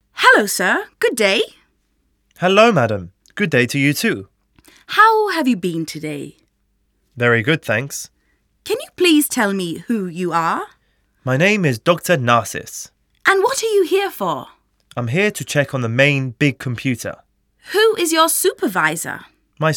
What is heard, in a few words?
Office Conversation